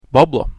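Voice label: "bubbler", English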